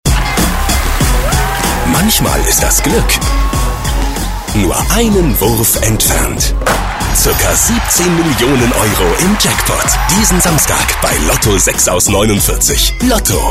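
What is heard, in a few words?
German, male, commercial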